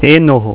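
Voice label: "e noho", Reo Maaori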